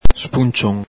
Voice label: "spuncion", Veneto

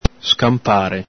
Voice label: "scanpare", Veneto

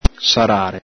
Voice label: "sarare", Veneto

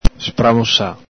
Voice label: "spramosa", Veneto

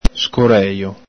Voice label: "scorejo", Veneto

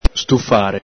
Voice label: "stufare", Veneto

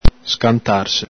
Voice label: "scantarse", Veneto

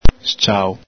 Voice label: "sciao", Veneto

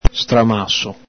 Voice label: "stramaso", Veneto

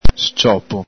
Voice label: "sciopo", Veneto

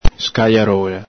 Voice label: "scajarola", Veneto

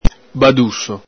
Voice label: "baduso", Veneto

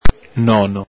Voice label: "nono", Venetian

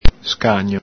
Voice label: "scagno", Veneto

